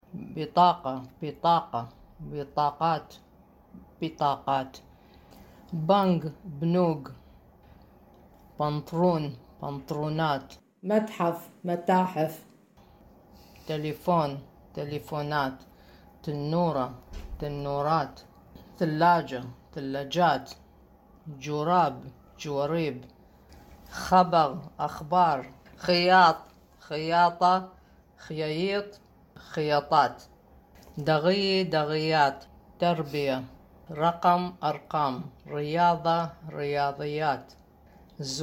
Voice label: Baghdadi Judeo-Arabic; Audio recording 7.2 to accompany section on Lesson 7: Family, Shopping, Dating; Vocabulary